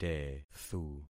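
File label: "tethu", concatenated syllables; Affixing experiment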